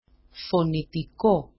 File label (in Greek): "fonitiko", Greek; φωνητικό; phonetic